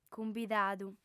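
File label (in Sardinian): "cumbidadu", female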